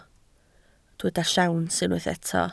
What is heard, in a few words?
"siawns", Welsh